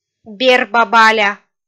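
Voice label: "берба-баля", Komi, female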